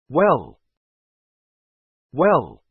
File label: "well", English